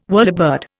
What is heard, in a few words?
"whirlybird", Indian